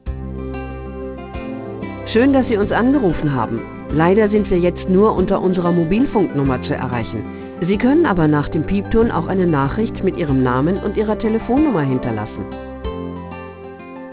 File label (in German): ansage (w) (m)